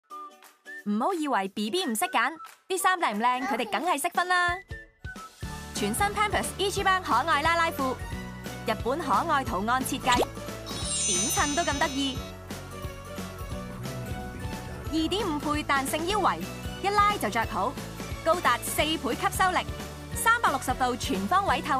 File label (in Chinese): 粵語女聲; Pampers